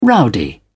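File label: "rowdy", British English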